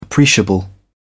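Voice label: "appreciable", British English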